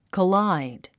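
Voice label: "collide", English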